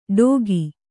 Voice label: "ಡೋಗಿ", Kannada